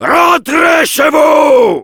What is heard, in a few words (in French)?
battle cry; Heavy; fr